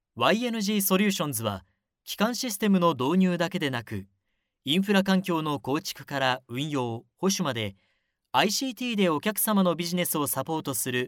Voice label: male